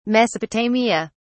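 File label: "mesopotamia", British English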